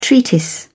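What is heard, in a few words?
"treatise", British English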